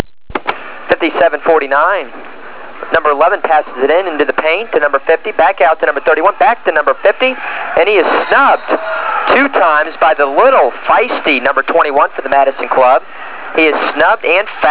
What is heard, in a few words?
BASKETBALL PLAY BY PLAY; EARLY BROADCASTING TAPES